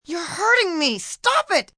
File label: Youre Hurting Me, distress, help, female; Calls